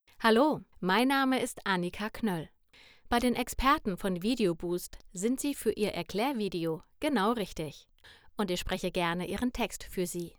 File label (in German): Ansage